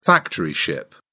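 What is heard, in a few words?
"factory ship", British English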